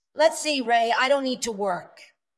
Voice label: Dubbing cloned es F2

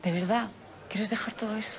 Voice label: Voice Acting es F

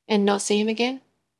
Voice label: Voice Acting es

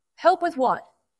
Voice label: VoiceActing es

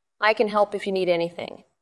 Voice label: VoiceActing es

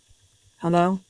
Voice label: Voice Acting, es, F0

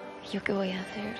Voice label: Voice Acting es F

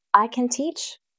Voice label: Voice Acting es F

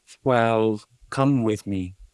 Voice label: Voice Acting es M

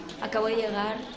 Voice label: Voice Acting es F

